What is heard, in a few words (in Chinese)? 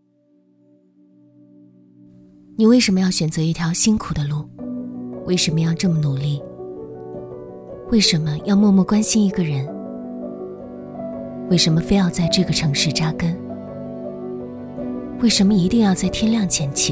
女 旁白 年轻